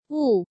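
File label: "Wù", Chinese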